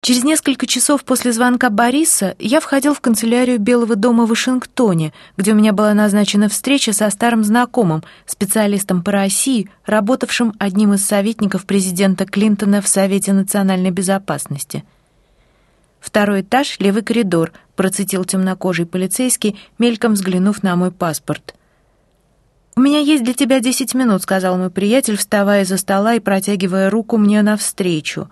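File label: Russian, female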